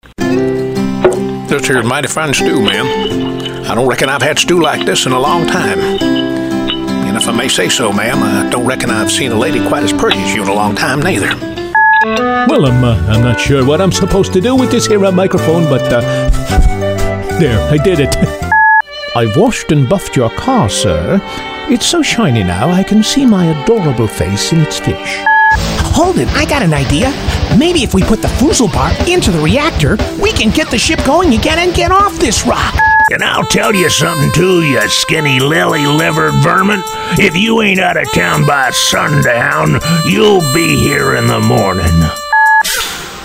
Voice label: Character